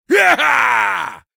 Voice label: Male Fight Appear; Mighty Party; Android

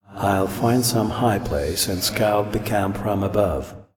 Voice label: Voice Dialog; we are the dwarves gamerip 2016

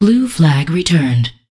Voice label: Voices Announcer Status

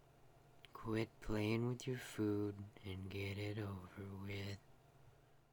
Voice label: Voices Female Beggings

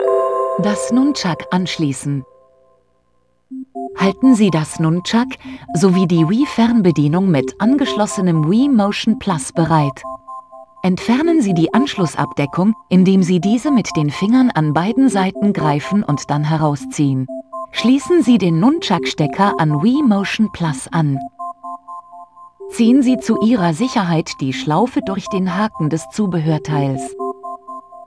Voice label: Connecting the Nunchuk, German; the legend of zelda skyward sword wii gamerip 2011

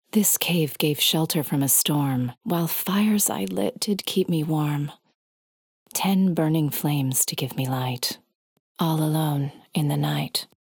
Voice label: Poetry; Cave

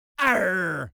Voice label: Voices Jamaican Arrr

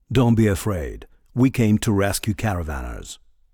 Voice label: Voice Dialog; we are the dwarves gamerip 2016

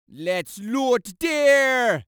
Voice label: Voices Jamaican Lets Loot There

